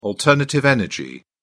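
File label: "alternative energy", British English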